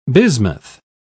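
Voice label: "bismuth", British English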